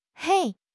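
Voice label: "hei1", Chinese; HSK; HSK2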